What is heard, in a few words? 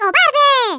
"coward", Hispanic; Worms Armageddon